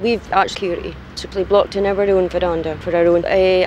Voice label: scottish woman, gibbrish; Voice

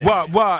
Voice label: "what what", voice sample; ooh ahh

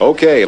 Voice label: "okay", expletives

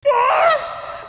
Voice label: "far", voice; ooh ahh; grover

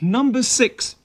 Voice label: "number six", male; Voice; numbers and letters

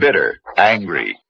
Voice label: bitter angry

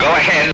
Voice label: "go ahead", male

